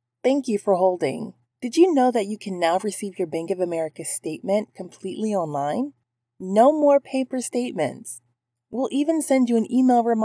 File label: TELEPHONE